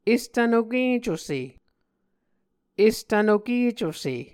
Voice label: "estvnokecuse", Muscogee; Mvskoke Words with Nasalized Vowels